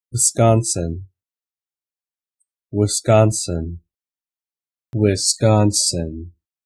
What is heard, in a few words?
En us Wisconsin